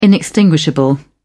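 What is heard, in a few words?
"inextinguishable", British English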